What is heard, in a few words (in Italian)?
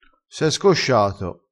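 "scosciato", dialettale, crespinese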